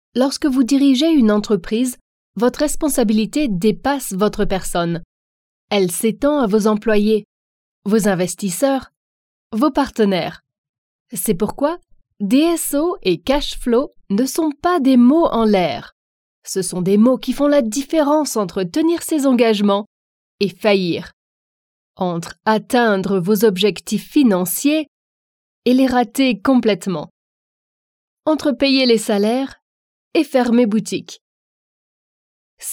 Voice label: french corporate videos